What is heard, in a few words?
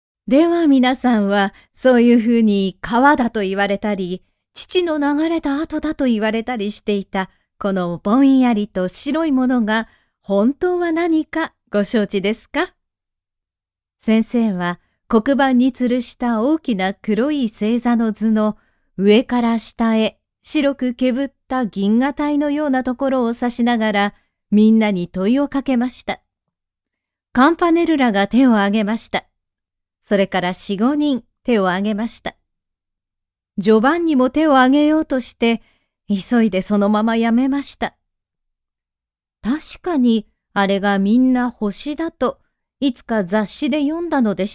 japanese audiobooks